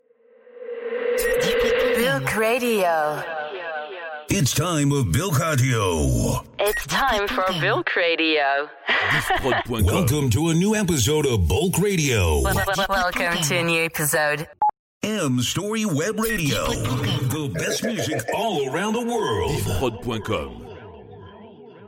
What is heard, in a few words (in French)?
Drops A cappellas, habillage